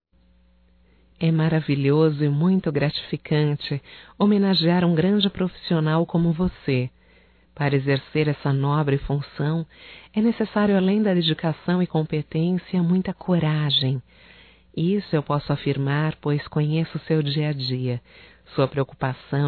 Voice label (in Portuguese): Dia Reporter Masculino Feminino; Datas Mistas; Telemensagens 2017